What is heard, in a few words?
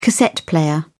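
"cassette player", British English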